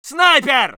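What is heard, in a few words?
voice soviet infantry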